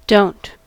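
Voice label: "don't", US English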